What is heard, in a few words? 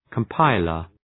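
"compiler", English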